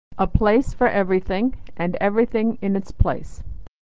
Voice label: "place everything", pronunciation